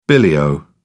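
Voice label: "billy o", English, uk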